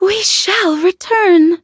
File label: defeat, en; Cookie Run